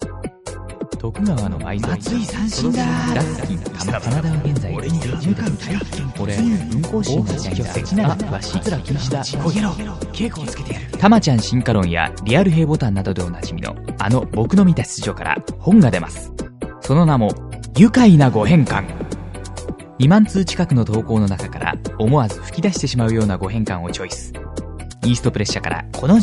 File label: radio